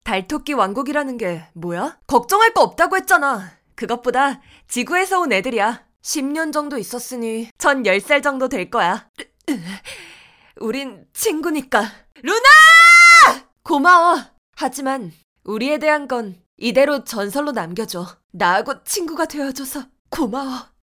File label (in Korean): 10대 남아 차분한 성숙한 다정한 미소년